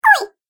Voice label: Cartoon Game Voice "Oi"